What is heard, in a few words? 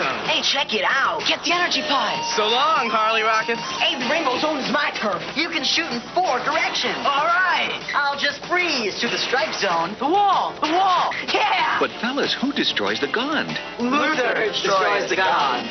video game commercial atari 2600; vanguard; luther destroys the gond